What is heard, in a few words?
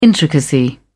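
"intricacy", English, uk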